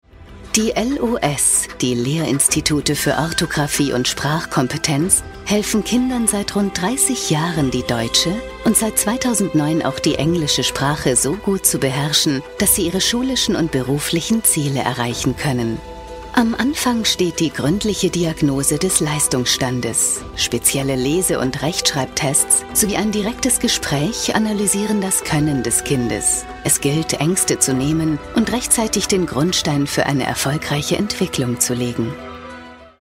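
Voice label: German informative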